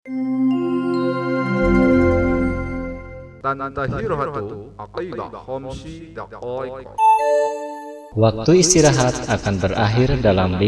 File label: Arab Istirht, bel sekolah; aplikasi bel sekolah